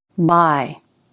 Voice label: "buy", English